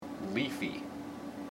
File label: "leafy", IPA